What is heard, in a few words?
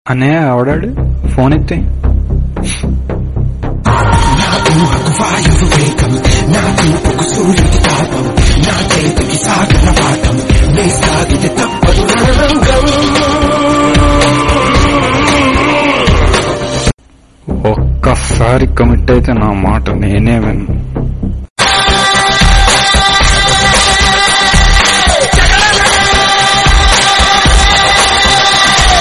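Style Dialogue Telugu Ringtone; Pokiri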